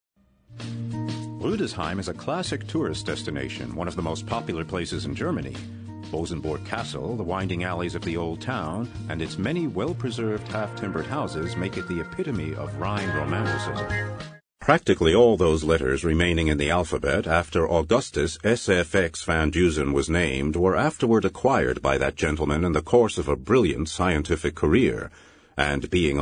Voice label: us englisch

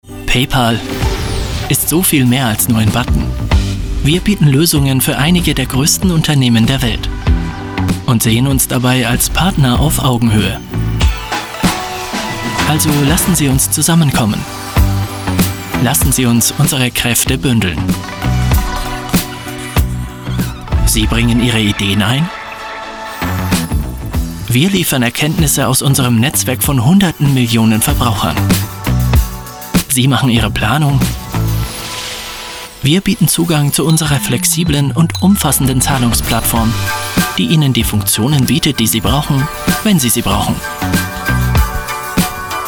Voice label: Werbung Corporate; LOFT VOICES

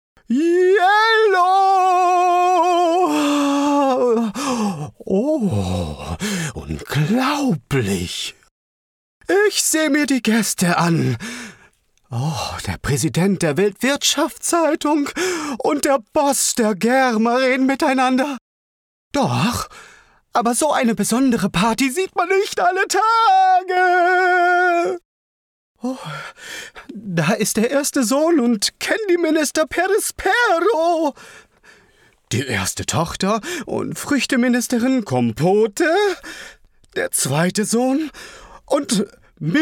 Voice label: Synchron Anime

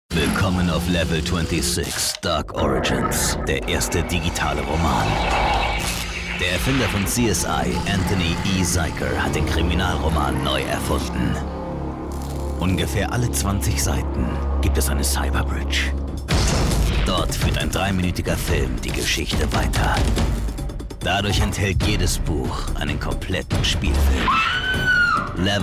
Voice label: hoerspiel

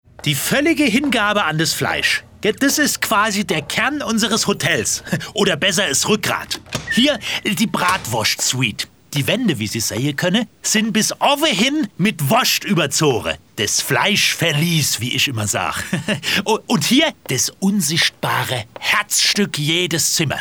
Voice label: Akzent Hessisch

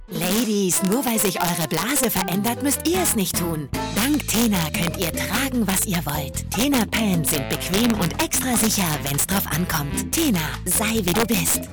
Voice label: TV dynamisch reifer; tena

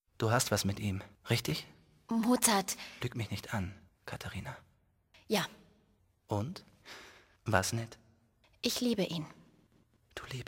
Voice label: hoerspiel; hoellenspiel

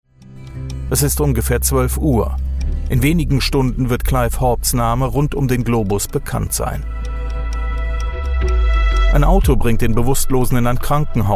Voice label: Doku Voiceover; Chrtistian Barnard

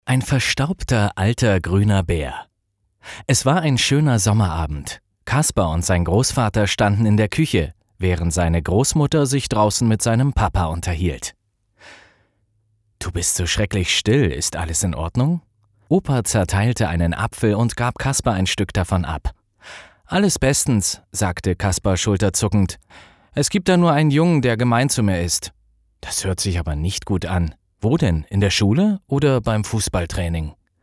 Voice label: Hoerbuch Kinder; LOFT VOICES

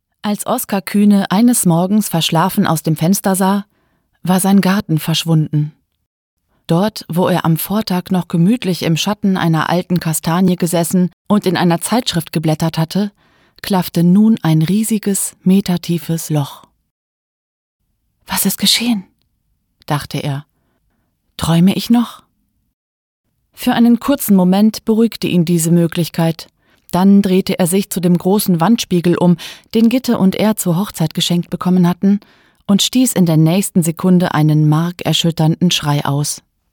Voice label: Hörbuch, Audiobook, German; Die Nächte

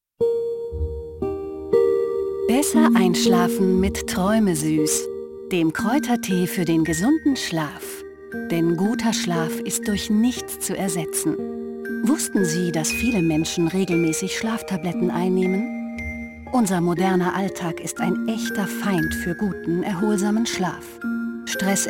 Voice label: Werbung Schlaftee

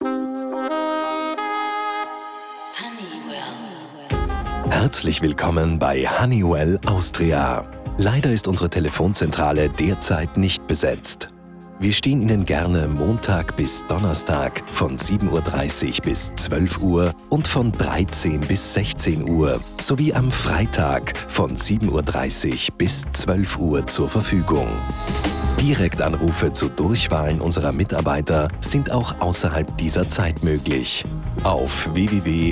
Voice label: telefonband; honeywell

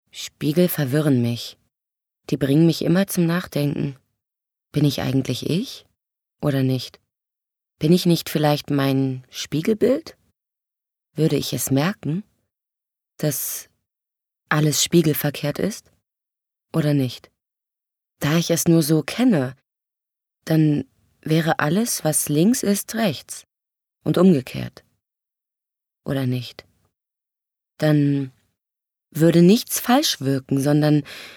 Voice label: Innerer Monolog

